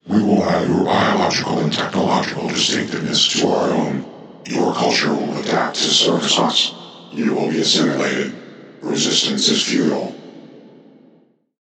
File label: Borg Voices